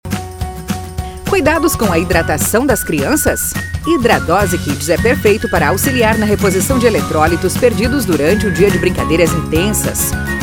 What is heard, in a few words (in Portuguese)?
Spot; Hidradose